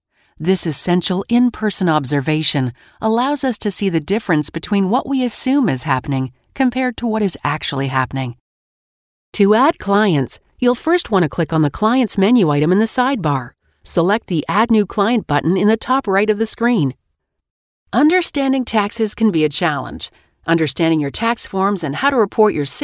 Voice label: Authoritative eLearning samples Conversational, Friendly - Warm